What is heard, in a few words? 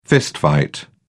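"fistfight", British English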